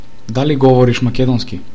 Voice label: speak macedonian

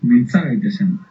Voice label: "lets talk", Basque

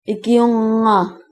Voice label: help, greenlandic

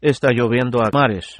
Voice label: rain, es

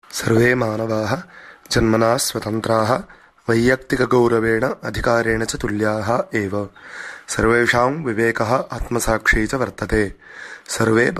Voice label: Sanskrit

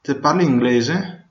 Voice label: do you speak english, Venetian

